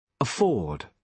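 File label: "afford", British English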